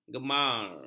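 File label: "gemar", Malay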